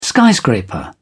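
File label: "skyscraper", British English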